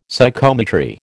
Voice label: "Psychometry", American